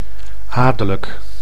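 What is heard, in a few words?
"adelijk", Dutch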